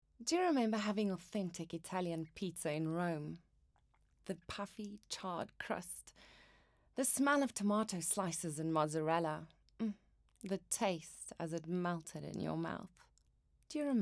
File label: SOFT SELL ENGLISH